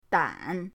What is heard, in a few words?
"dan3", pinyin; pinyindct-open